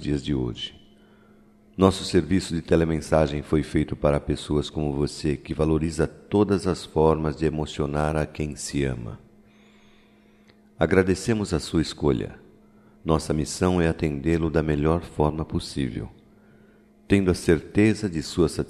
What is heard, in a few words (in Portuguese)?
AGRADECIMENTO AO CLIENTE, Só Voz, masc; Telemensagens; Telemensagens 2017